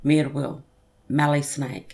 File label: "mirwil" mallee snake